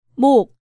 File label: "Mok", Korean; DigitalSoju